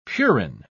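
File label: "purine", British English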